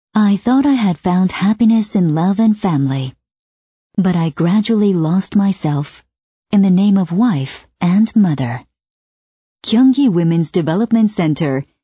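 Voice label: American English, female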